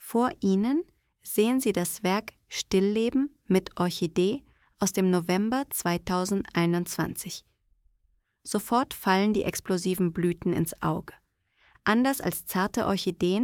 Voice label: voice audio guide; stillleben mit orchidee